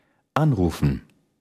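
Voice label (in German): "anrufen", German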